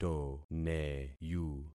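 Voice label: "toneyu", concatenated syllables; Affixing experiment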